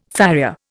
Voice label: "farrier", Indian